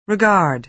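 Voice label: "regard", American English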